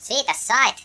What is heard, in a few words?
"revenge", Finnish; Worms Armageddon